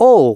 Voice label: announcements, male